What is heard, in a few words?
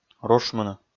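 "рушмыны", Komi, male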